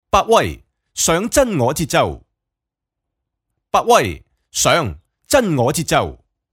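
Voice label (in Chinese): 粤语广告; 百威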